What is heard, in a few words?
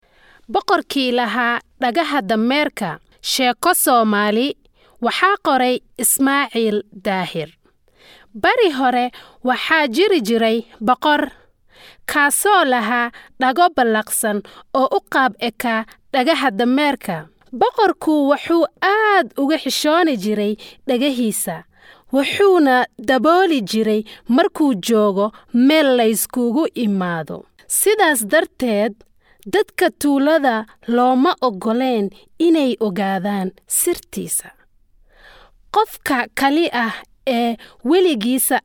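Somali general; GoLocalise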